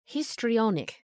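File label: "histrionic", English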